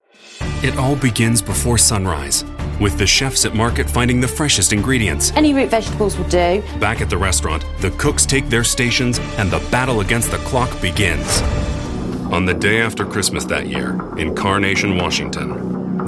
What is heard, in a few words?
Narration